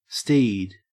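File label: "steed", Australian English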